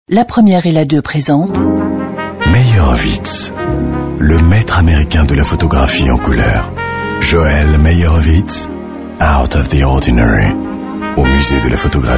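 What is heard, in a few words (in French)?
Belgian French, male, commercial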